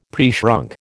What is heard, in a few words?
"preshrunk", American